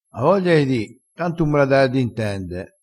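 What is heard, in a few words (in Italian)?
"da a d intende", dialettale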